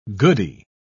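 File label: "goodie", British English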